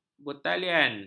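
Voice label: "bertalian", Malay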